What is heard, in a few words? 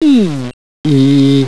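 close front unrounded; london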